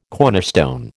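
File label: "cornerstone", American English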